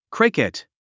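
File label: "cricket", English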